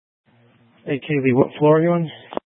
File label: Sheriffs Office Central Court Security, scanner; Maricopa County; Thompson Peak